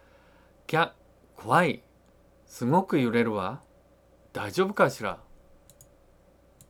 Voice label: dialogue line, japanese